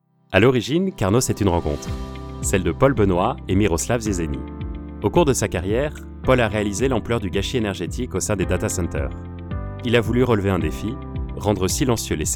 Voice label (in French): film institutionnel